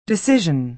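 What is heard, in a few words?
"decision" American English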